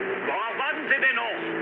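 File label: German attack position mood med, speech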